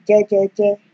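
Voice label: "jejeje", Nigerian Pidgin, female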